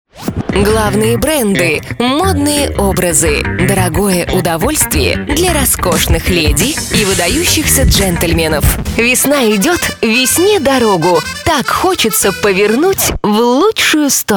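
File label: Russian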